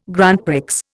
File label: "Grand Prix", Indian